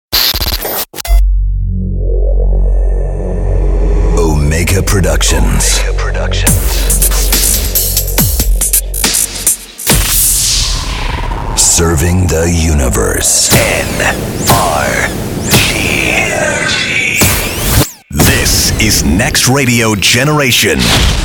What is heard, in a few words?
english; ENGLISH RADIO IMAGING; Omega Productions